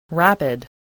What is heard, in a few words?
"rapid", english